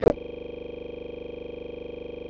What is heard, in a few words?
ih synth; synthesis